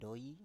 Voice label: "doi", Biak

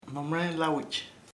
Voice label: "free libre", chamacoco

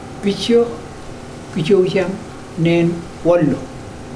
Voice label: the pig ran out from the forest, mokilese

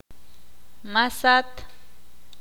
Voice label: "ciervo", Ixil

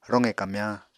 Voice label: "roqe kamyañ", Apatani

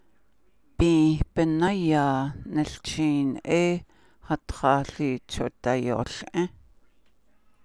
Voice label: navajo; The matter from under the eye fold of a deer use

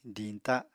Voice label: "diñta", Apatani

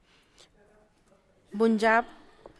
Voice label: devil, Zapotec; buny zhab; (Spanish) diablo

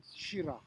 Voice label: "shira", futuna aniwa

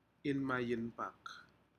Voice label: "inmayinpak", Aneityum; fish

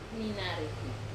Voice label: "nanaripi", Wamesa

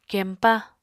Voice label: "kempa", apatani

